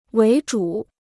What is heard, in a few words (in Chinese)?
"为主", Chinese